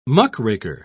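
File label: "muckraker", British English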